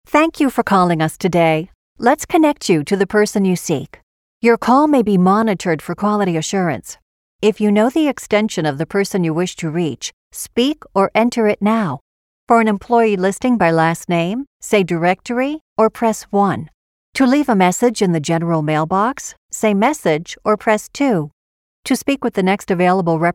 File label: english-us female ivr